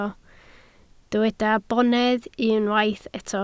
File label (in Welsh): "bonedd", Welsh